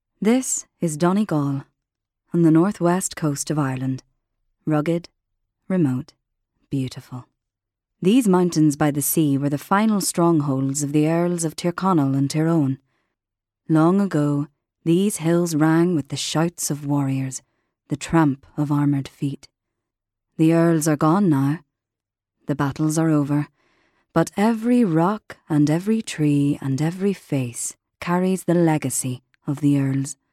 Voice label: Narration